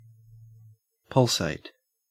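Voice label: "pulsate", Australian English; pronunciations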